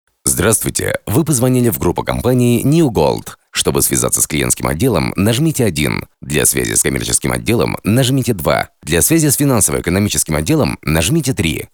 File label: IVR; Gruppa kompanii New Gold